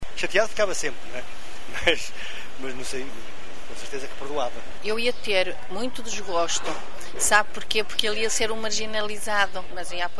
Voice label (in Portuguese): vox pop adultos